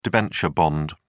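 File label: "debenture bond", British English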